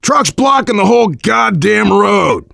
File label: directional, voice, biker; Left 4 Dead 2; left4dead2 dlc3; survivor